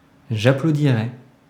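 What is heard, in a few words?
"j'applaudirai", French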